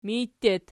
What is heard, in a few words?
"mij", Archi